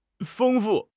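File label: "feng1 fu4", Mandarin Chinese; HSK4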